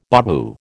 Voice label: "babu", American

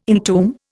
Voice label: "entomb", Indian

